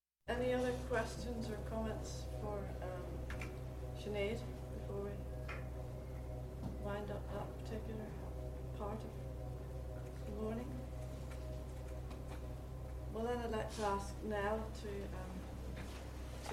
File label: Irish Travellers, Ireland